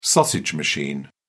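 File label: "sausage machine", English, British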